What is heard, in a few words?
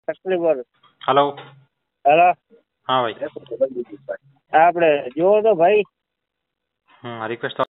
Call recording